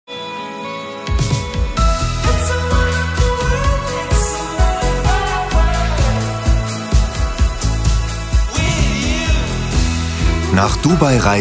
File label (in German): Fremdtake Sanft